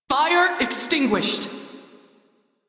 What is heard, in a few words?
Crew Fix Fire, Female; Dialog; en; Warframe; Railjack Crew; New Loka